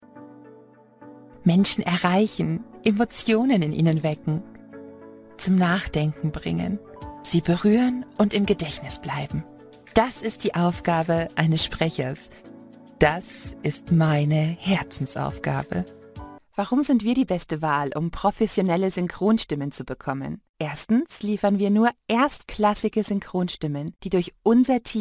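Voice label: German, female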